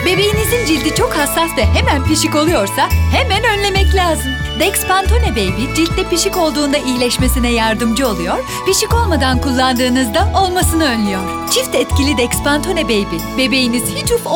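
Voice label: Turkish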